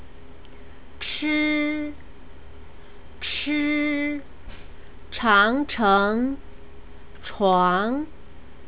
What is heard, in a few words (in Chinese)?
"chi", Chinese pronunciation